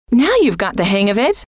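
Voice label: now you've got the hang of it, female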